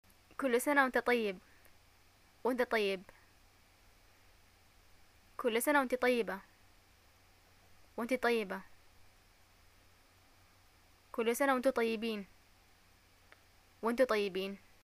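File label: greeting celebration, Yemeni Arabic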